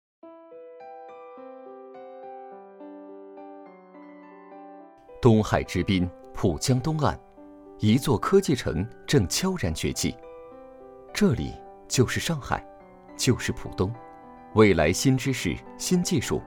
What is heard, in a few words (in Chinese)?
专题 科技质感